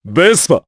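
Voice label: Vox Japanese; Gau; Vespa; kingsraid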